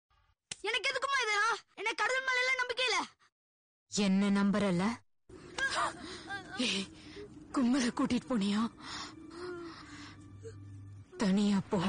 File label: mom dialogue; kgf